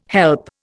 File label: "help", Indian